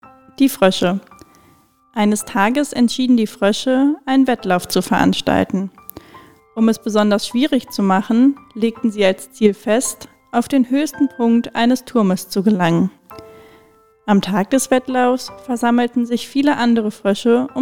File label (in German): Froesche weiblich